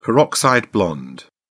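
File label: "peroxide blonde", British English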